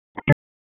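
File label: Police Southwest District Dispatch; Raleigh; p25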